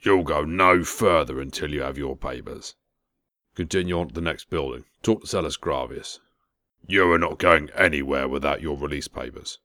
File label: rough, imperial voice auditions